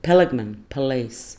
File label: "peligmen" police